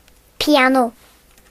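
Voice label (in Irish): "piano", Irish; gcompris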